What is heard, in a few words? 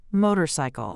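"motorcycle", English; transportation vocabulary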